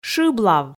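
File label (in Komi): "шыблав", Komi, female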